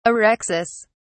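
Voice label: "orexis", British English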